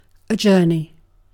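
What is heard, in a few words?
"journey", British English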